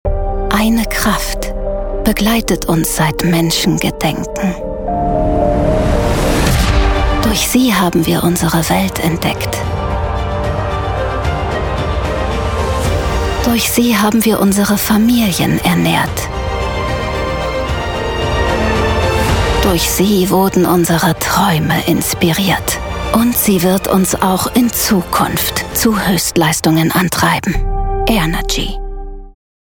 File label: german promos